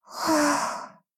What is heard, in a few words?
sad